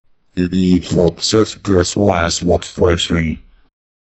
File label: workout video motivational